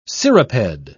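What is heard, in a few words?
"cirriped", British English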